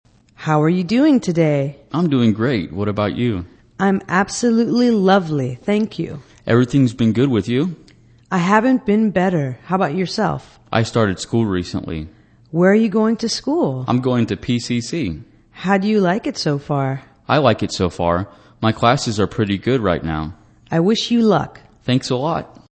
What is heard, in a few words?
english conversation greetings